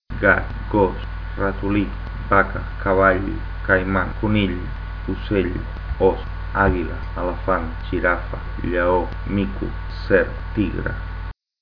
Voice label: catalan; animals